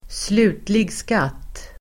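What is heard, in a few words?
"slutlig skatt", Swedish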